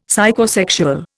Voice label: "Psychosexual", Indian; Dictionary